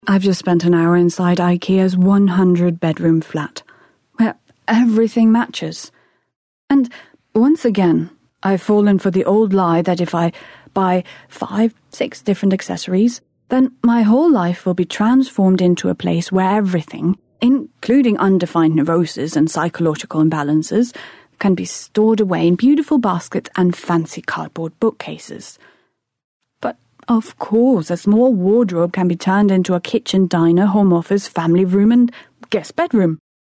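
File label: Danish, female, English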